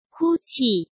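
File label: "哭泣", Mandarin Chinese; chinese-flashcards; hsk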